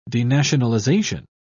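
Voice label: "denationalisation", British English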